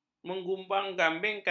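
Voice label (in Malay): "mengumbangambingkan", Malay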